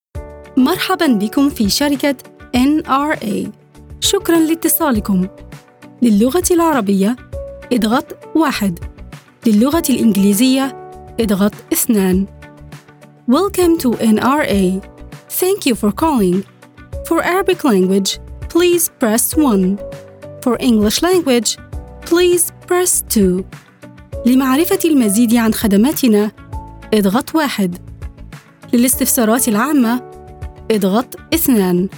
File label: IVR